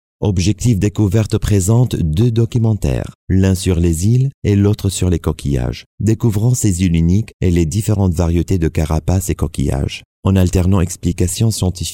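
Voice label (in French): African French, male, narration; voice demos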